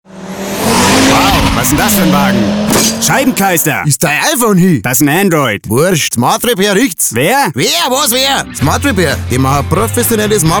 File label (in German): Radiospot Smart Repair